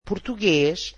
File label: "Portuguese", Portuguese